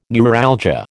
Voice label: "neuralgia", American